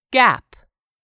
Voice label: "GAP", english; 3000 words

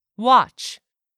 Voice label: "watch", English; 3000 words

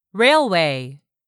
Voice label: "railway", English; 3000 words

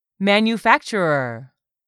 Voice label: "manufacturer", English; 3000 words

